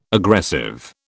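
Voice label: "aggressive", British English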